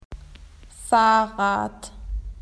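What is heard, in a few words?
"bicycle", Australian English; PictureDictionary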